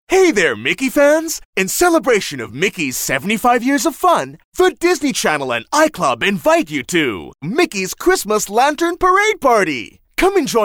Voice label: English (Fresh, Vibrant)